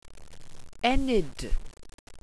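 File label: "enid", welsh pronunciations; Wales/welsh names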